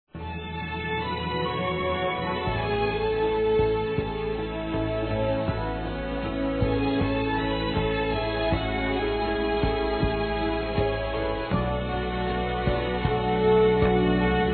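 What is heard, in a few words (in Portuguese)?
Telemensagens, Feminino; AGRADECIMENTO AMIGO(A)-F; Amizade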